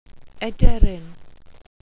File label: "ederyn", welsh pronouciations; Wales/welsh names